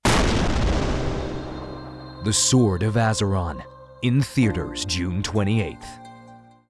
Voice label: Movie Trailer US

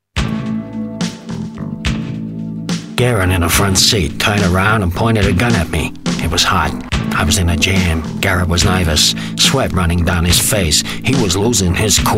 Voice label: sunroof American

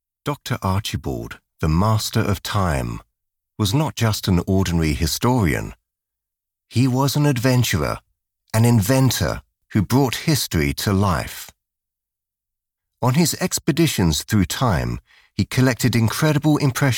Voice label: british voice over amusement ride attraction

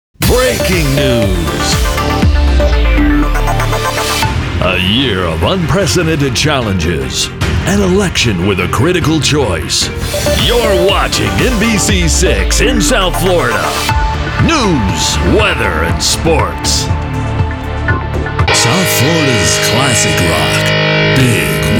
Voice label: Imaging Promo

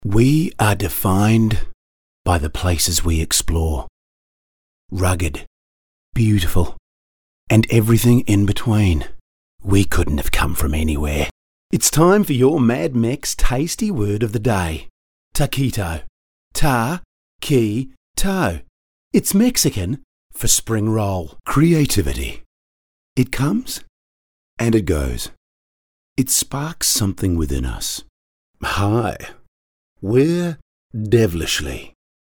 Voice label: VO demo reel

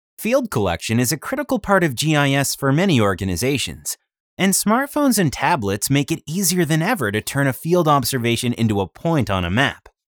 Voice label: Corporate Video Promo

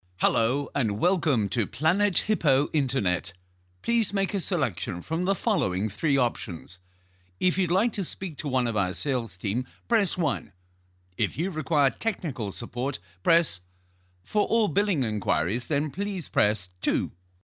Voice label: UK

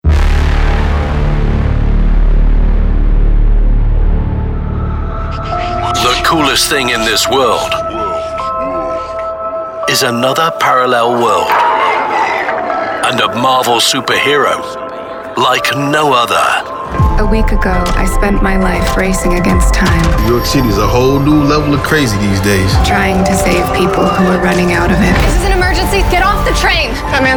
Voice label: trailer; Madame Webb

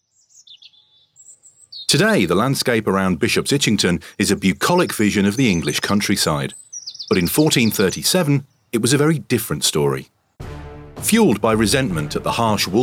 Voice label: AUDIO GUIDE; MEDIEVIL

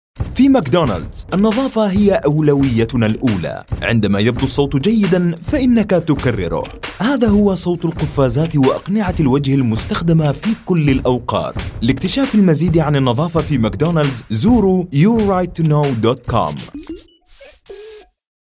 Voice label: AD White accent Arabic; McDonalds

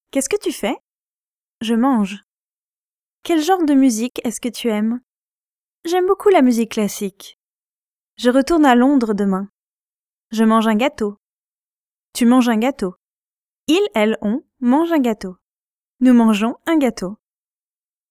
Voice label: French course

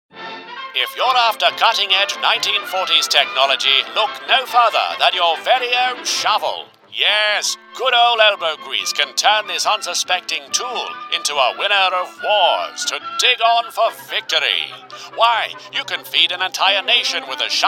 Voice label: Newsreel; Pathe

